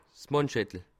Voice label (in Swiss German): "manschettel", Alsace